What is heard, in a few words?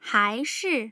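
"haishi", Mandarin Chinese, tone pairs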